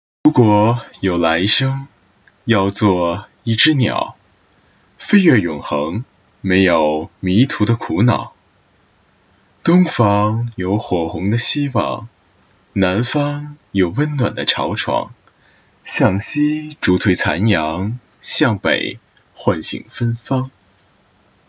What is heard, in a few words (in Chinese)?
情感类